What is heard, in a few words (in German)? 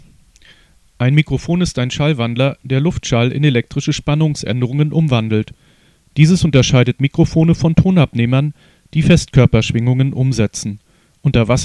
CT329 Dynamisch ohne Windschutz; Probeaufnahme; Mikrofon